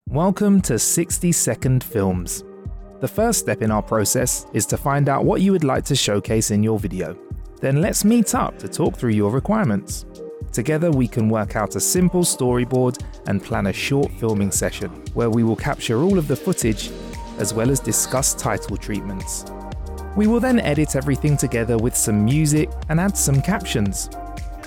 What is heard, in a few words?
Instructional Voiceover